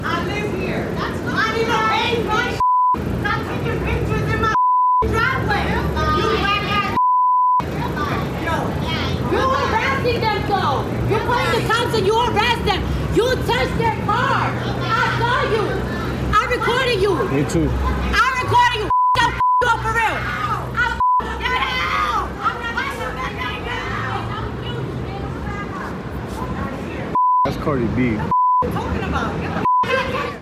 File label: NYC SHOUTING MATCH; CARDI B